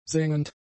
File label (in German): "sengend", German; adjectives